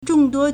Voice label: "zhong4 duo1", Chinese